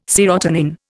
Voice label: "serotonin", Indian